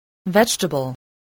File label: "vegetable", English